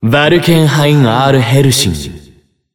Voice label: System Voice; BBCF; Jin